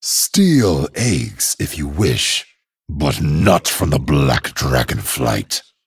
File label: Male Dragon HAPPY NOBLEGARDEN